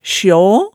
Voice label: "sjaa", hemsedalsmaalet

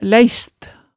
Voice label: "læist", hemsedalsmaalet tale

